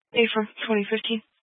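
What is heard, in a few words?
Police 1 Dispatch, Scanner audio recording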